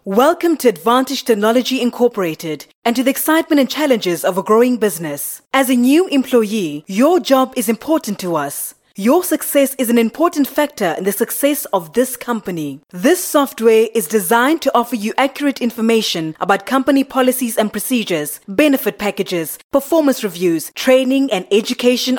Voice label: Corporate

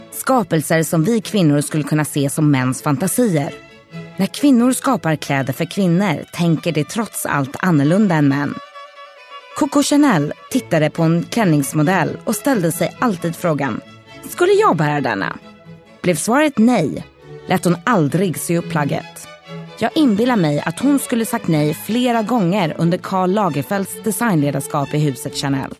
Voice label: Swedish, Scandinavian, Female, 30s-40s

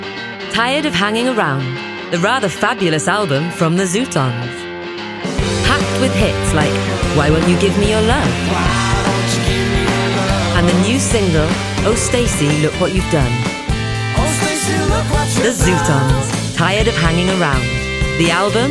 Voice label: Commercial

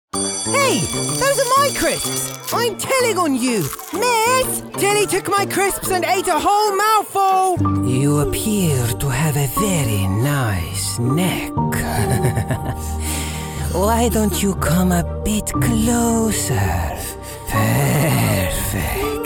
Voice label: Animation

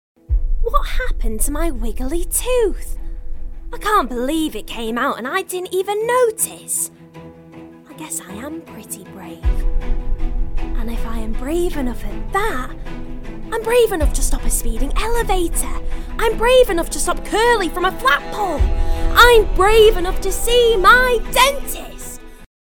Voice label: Animation

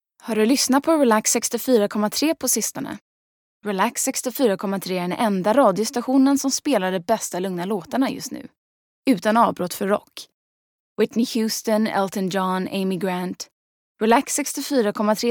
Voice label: Swedish, Scandinavian, Female, 20s-30s

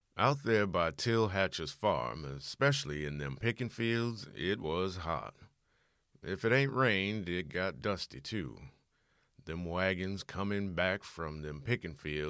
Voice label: home studio audiobook; 20-30s. US. Young, friendly and warm.

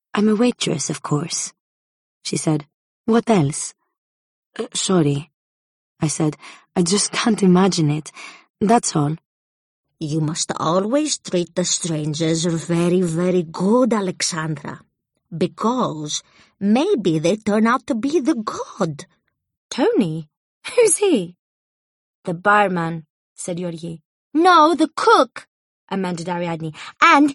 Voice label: Greek accent

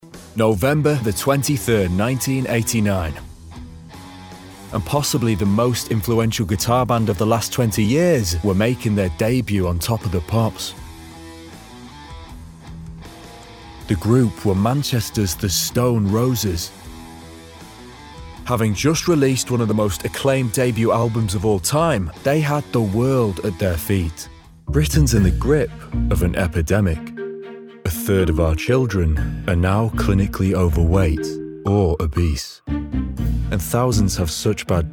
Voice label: Narration

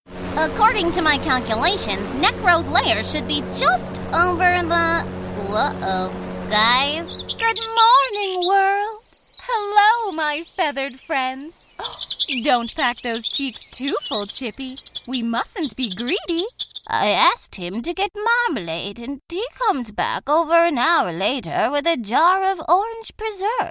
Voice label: Animation

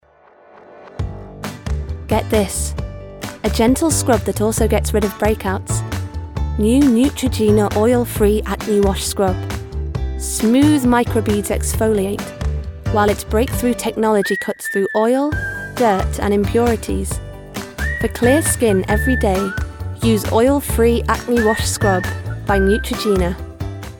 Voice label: Doncaster Commercials

